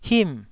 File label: "him4", taiwanese